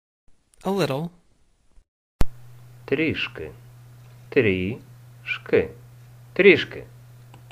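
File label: Ukrainian A little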